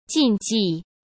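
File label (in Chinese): "禁忌", Mandarin; chinese-flashcards; hsk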